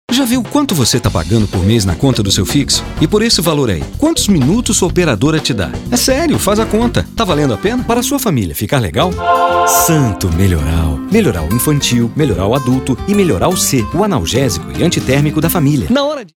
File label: locutor brasil brazilian voiceover; locutor de Brasil